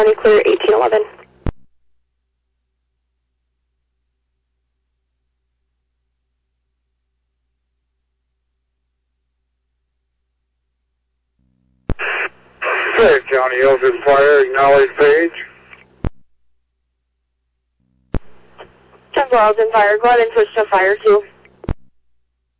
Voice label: West Union Fire, dispatch

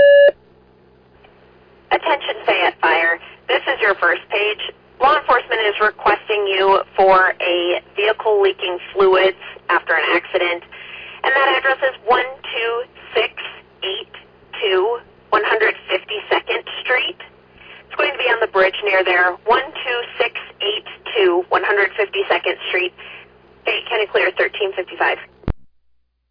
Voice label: Fire, dispatch

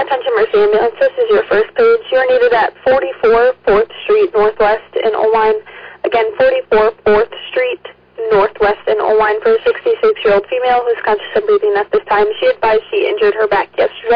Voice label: Oelwein Ambulance, dispatch

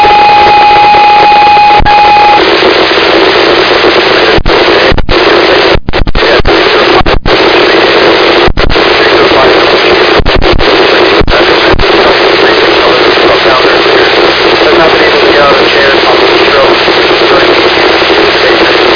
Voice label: Ambulance, dispatch; Elgin

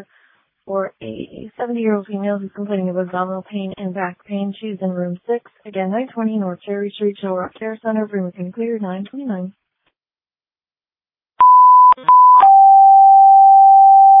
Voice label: Waverly Ambulance, dispatch

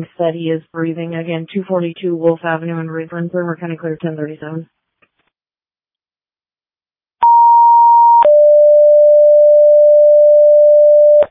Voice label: Ambulance, dispatch

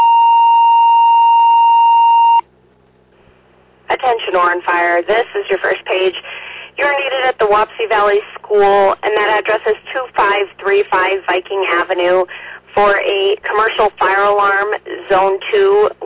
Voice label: Oran Fire, dispatch

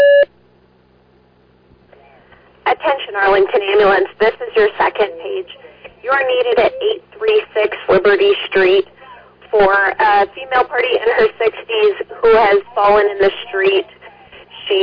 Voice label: Arlington Fire, dispatch

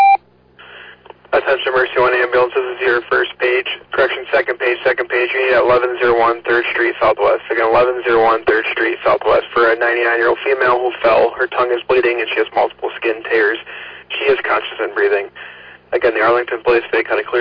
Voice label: Oelwein Ambulance, dispatch

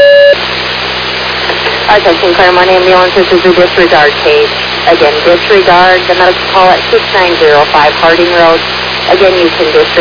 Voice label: Fire, dispatch; Clermont

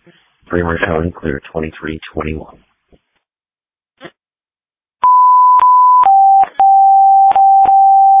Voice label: Waverly Ambulance, dispatch

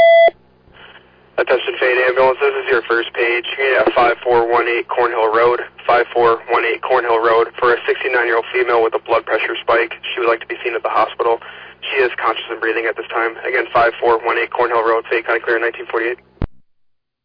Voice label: Ambulance, dispatch

